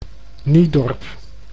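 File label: "Niedorp", Dutch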